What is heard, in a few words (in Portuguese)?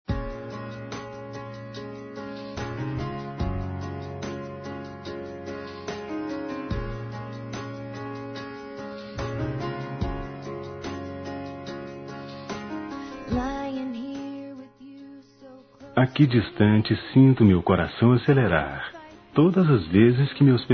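Masculino, Telemensagens Aniversários; Aniversário Romântico Distante; Telemensagens 2017; Aniv Familiar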